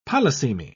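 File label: "polysemy", British English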